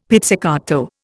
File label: "pizzicato", Indian; Dictionary